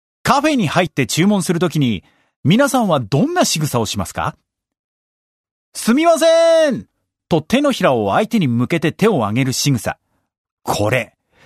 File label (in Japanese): narration; m natural